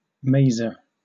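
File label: "maser", British English; pronunciations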